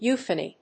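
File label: "euphony", English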